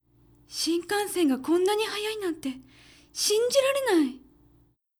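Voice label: female; 新幹線がこんなに速いなんて、信じられない！; パワフル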